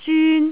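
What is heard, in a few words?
"zyun1", Cantonese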